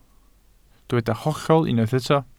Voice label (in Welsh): "hollol" Welsh